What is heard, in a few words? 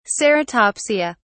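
"ceratopsia", British English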